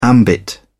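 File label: "ambit", English, British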